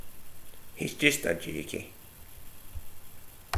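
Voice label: "jakey", slang; Ah huvnae seen ye aboot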